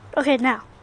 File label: "okay now", Children